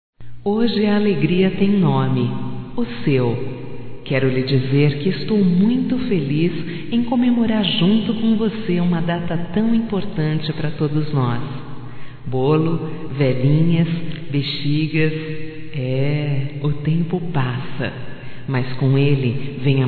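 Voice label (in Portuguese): female, Telemensagens Aniversarios; Telemensagens 2017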